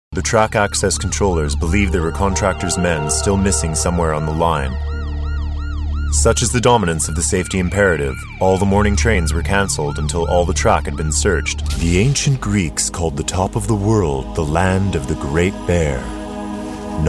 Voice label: Narration